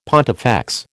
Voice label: "pontifex", American